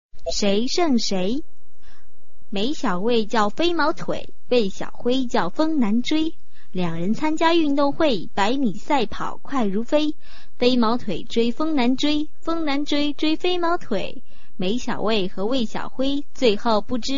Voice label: chinese tongue twister